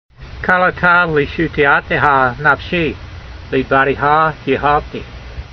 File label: voice, hebrew language; Psalm 119:81; kaletah leetshoo'ah'teha nafshee, leedva'r(d)e'ha yeehaltee